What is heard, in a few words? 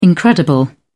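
"incredible", English, British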